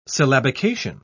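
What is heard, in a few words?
"syllabication", British English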